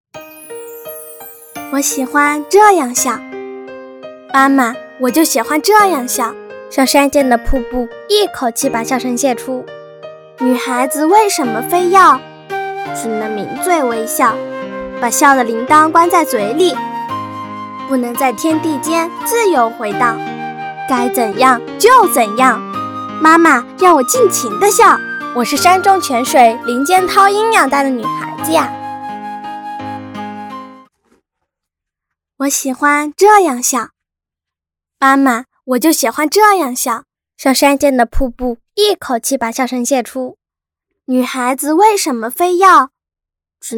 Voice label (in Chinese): 女国 12岁真女童 我喜欢这样笑; 真童配音